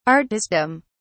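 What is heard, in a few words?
"artistdom", English, British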